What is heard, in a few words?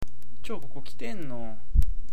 "tenno", awaji ben